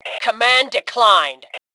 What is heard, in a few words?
Command Declined, Female; T2 Female 04